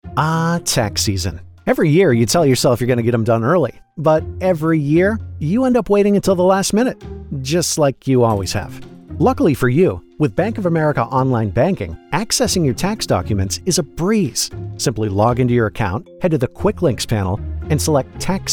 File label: Explainer Tax Documents, American Male Voice; explainer video voiceover; BOA